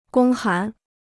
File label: "公函", Chinese